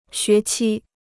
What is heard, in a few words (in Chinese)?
"学期", Chinese